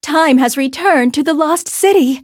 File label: levelup en; cookie run